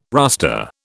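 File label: "rustre", British English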